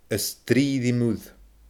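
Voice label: "a strii ri muir", Scottish Gaelic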